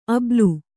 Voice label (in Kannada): "ಆಭೀಲ", kannada